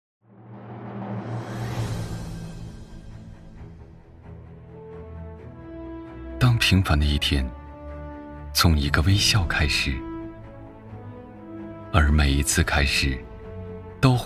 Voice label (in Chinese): 样音专题内心独白